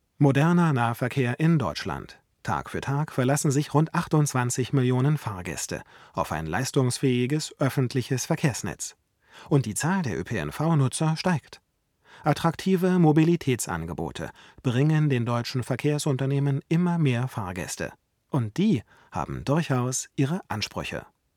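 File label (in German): U87 bearbeitet, Sprecherraum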